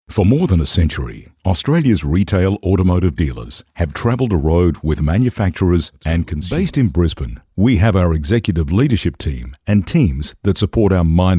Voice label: Narration